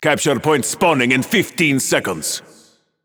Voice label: Announcer Match Point Spawn; Fernando

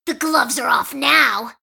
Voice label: Rage; Awesomenauts

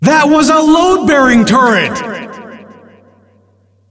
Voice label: Announcer Ringmaster; Awesomenauts

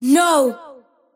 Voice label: kid lose; dota2; invoker

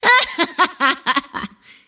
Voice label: laugh; Anti-Mage

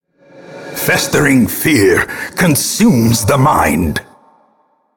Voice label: narr bad horror; Darkest Dungeon

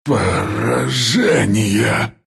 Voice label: drag lose, ru

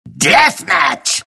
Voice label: announcer type death match; Clockwerk announcer

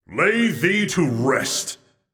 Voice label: Warrior Taunt; Realm Royale; Archangel

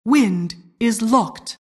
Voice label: announcer custom alerts

